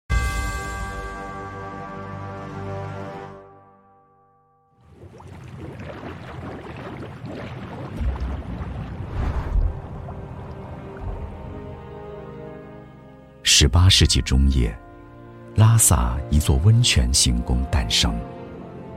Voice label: 男 央视风格纪录片; 布达拉宫

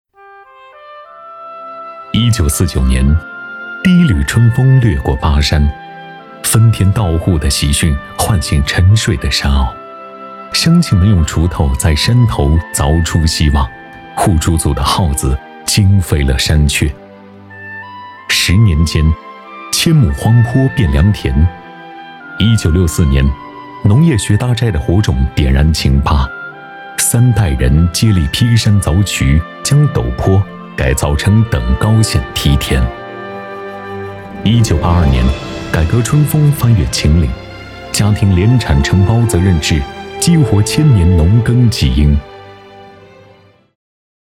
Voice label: 男 专题 温暖讲述 板块解说词; 农业学大寨

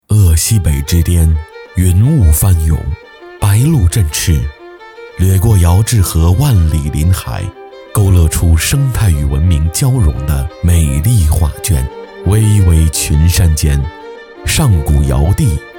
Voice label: 男 中文 专题 讲述感

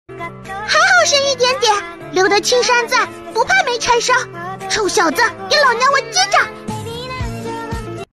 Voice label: 女 角色 模仿; 石矶娘娘

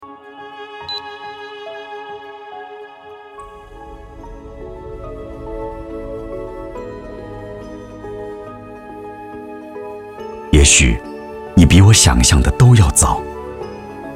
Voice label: 男 央视纪录片预告; 莽昆仑

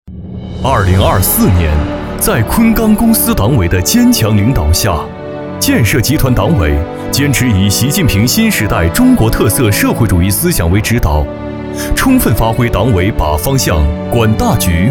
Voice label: 男 党建 大气浑厚; 旗帜领航

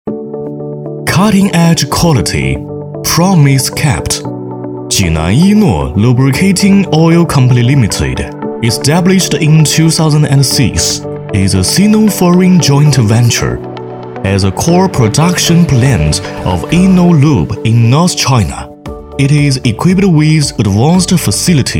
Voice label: 男 英文 宣传

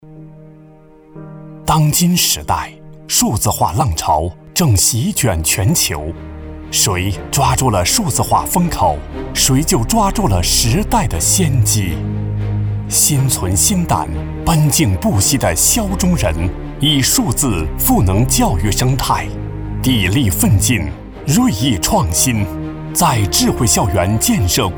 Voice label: 男 学校 中学

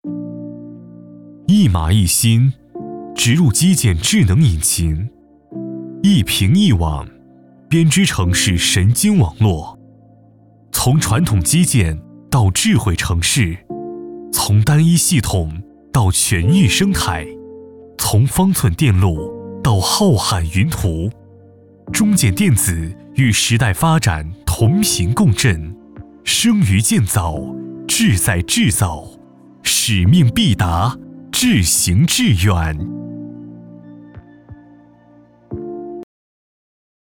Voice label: 男 沉稳专题; 中建电子

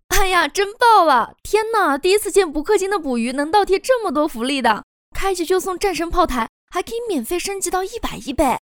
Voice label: 女, 信息流, 素人

